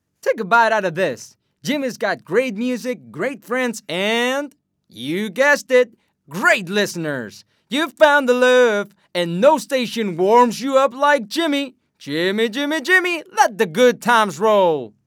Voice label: Cool Ident, Commercial, Bright, Light, accent, American